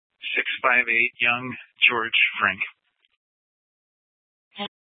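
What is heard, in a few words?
Scottsdale Police Department South Dispatch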